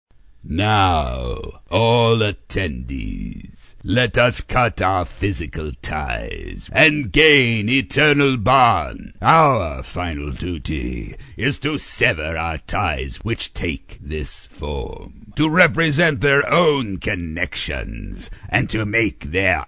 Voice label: PRIEST EVIL DARK; animation